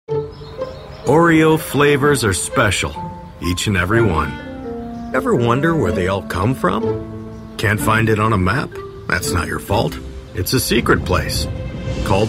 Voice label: english american voice over male